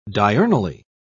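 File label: "diurnally", British English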